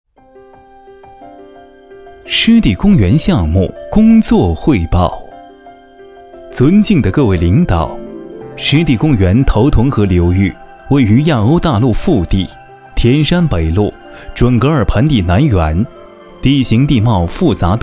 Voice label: 男 专题 汇报

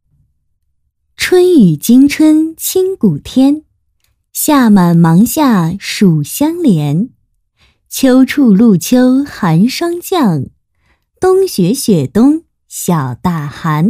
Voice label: 女 专题 女生温柔绘本睡

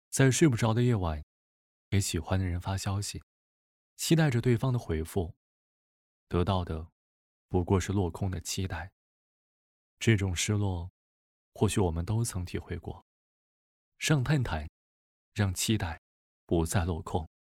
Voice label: 男 年轻口语化; 探探

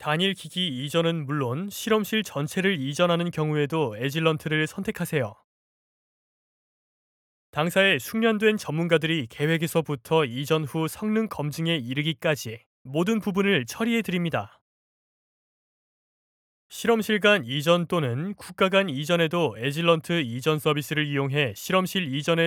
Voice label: 外籍韩语男 语气平缓

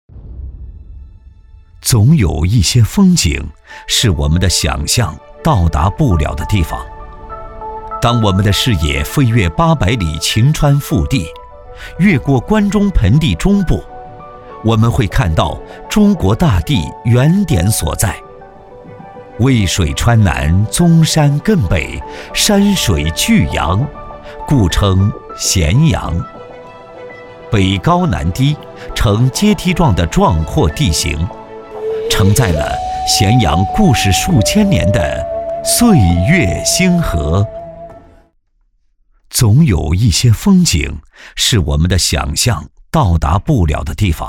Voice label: 男 纪录片 大气宏伟 航拍咸阳

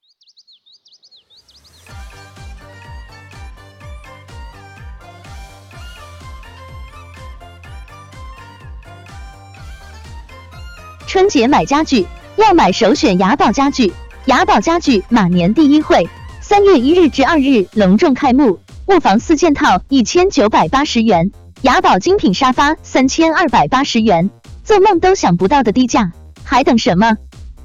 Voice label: 女-家具优惠

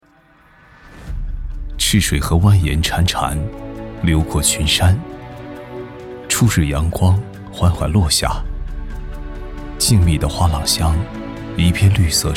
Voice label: 男 纪录片; 绿田

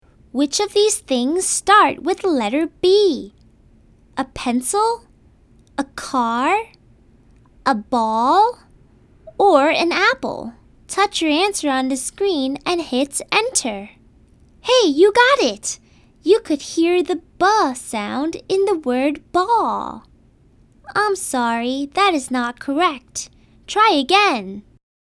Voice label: 童声女-教学类

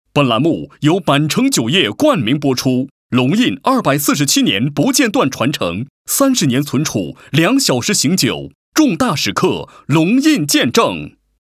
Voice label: 男 卫视包装配音; 河北卫视包装配音

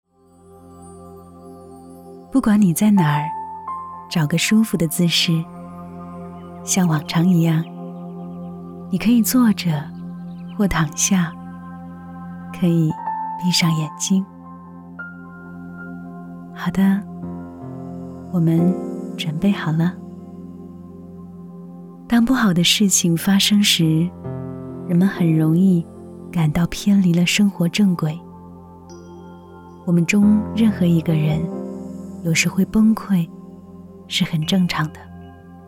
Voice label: 女 冥想瑜伽 舒缓轻柔

